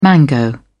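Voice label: "mango", British English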